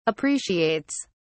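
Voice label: "appreciates", British English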